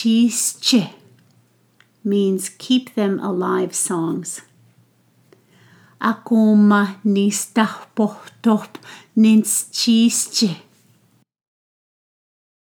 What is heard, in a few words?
Cree Dene Blackfoot Pronunciations; Nitohta